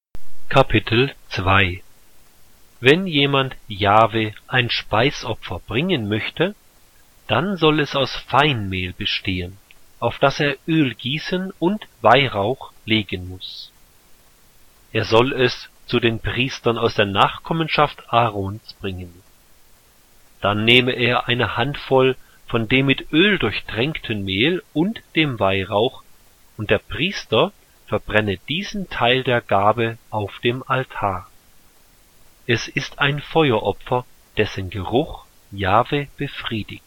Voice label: german